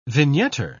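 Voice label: "vignetter", British English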